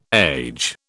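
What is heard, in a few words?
"age", British English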